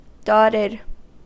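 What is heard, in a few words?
"dotted", US English; pronunciations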